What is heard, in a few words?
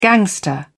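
"gangster", English, British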